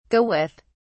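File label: "go with", British English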